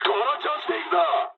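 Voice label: player killed enemy mood med, speech japanese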